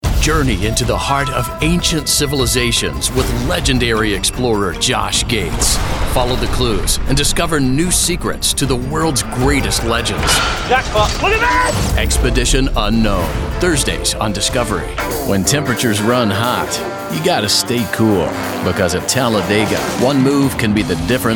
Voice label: Promo, Voice Over

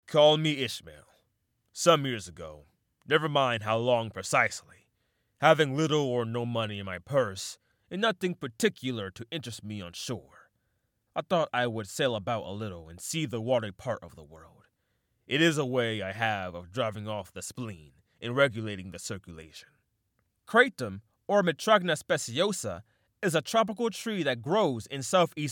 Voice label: Narration

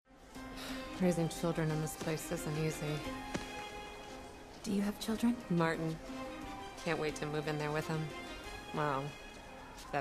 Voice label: Dubbing, Voice Over

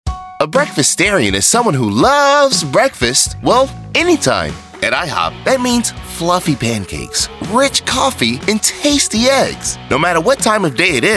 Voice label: Commercial, Voice Over

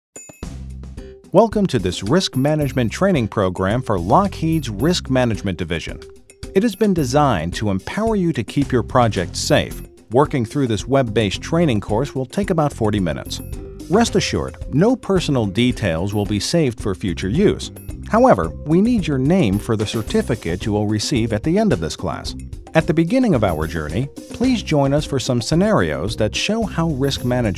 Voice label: E LEARNING, Voice Over

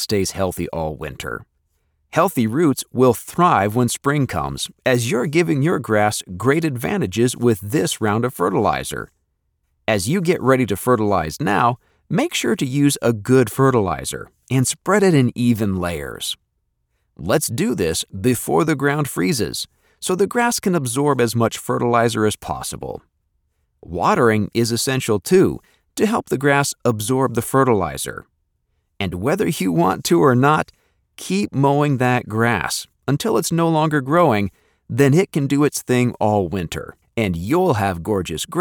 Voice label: Explainer lawn care

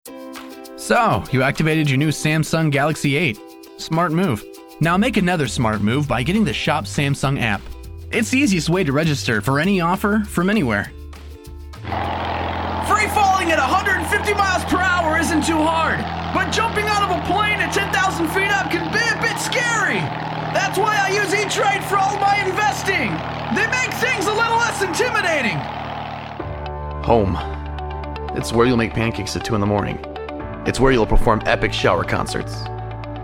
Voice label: Commercial

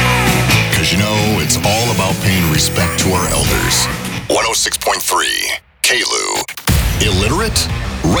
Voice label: Rock Imaging

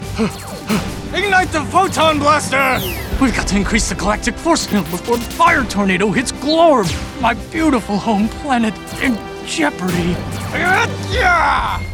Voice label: Animation

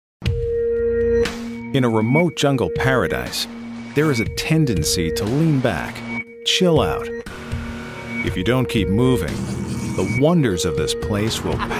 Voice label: Narration

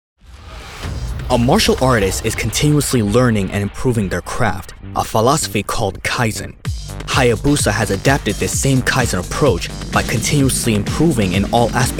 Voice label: CORP EXPLAINER

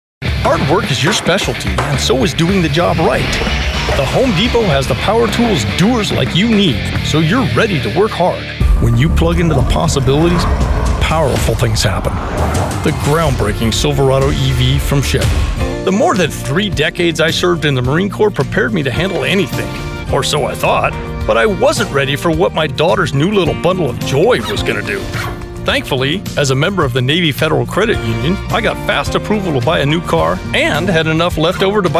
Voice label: Commercials

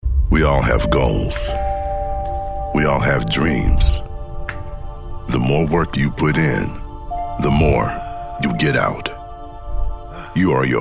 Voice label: Commercial

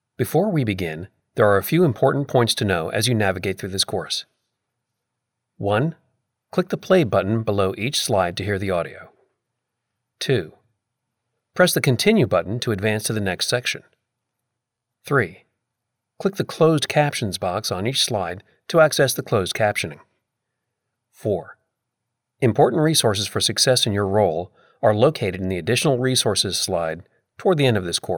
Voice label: E Learning, Voice Over

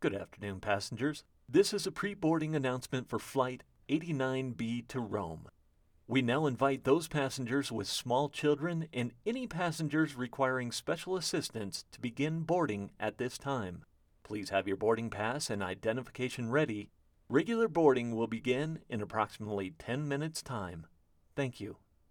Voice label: Pre boarding Announcement

